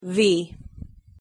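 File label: "v" pronunciation English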